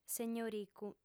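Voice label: "segnoricu", Sardinian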